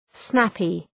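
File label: "snappy", English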